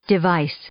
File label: "device", English; noun; s-z